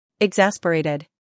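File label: "humor", British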